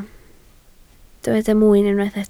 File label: "mwyn", Welsh